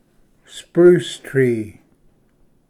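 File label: "spruce tree", English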